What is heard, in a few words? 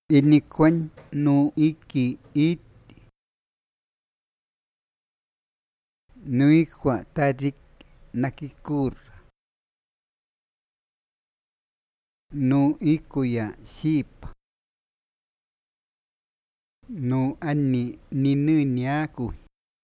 iquito verbos